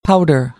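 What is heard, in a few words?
"powder", American English; AmericanBritish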